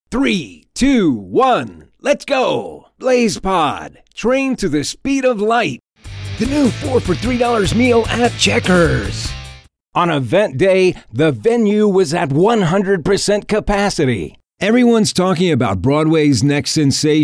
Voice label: UPBEAT